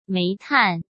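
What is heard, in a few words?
"煤炭", Mandarin Chinese; chinese flashcards; hsk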